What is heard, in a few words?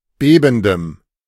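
"bebendem", German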